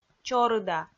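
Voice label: "чорыда", Komi, female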